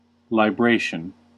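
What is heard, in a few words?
"libration", American English; pronunciations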